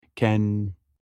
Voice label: blood in turkish